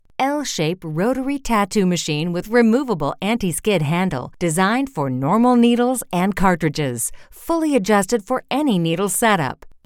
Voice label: Tattoo Professional Articulate